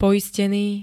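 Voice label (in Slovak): "poistený", Slovak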